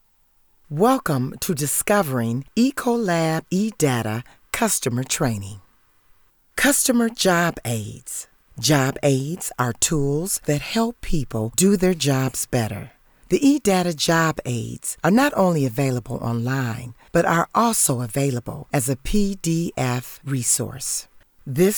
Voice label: eco lab trainning